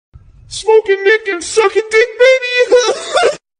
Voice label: a guy smoking autotune

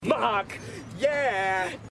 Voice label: mock yeah

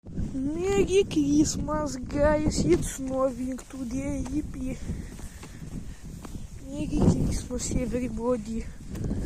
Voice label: merry xmas russian

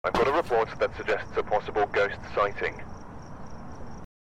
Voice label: radio voice; phasmaphobia

